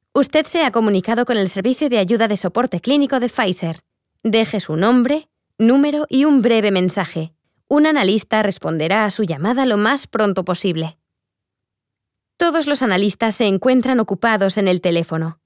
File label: Spain IVR